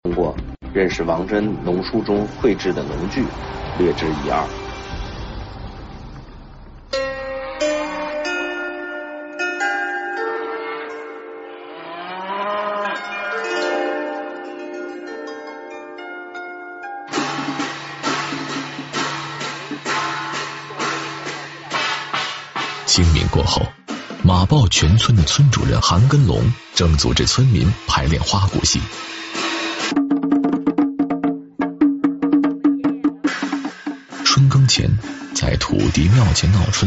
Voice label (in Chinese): 男, 纪录片; CCTV13; 农耕春秋; 话说农桑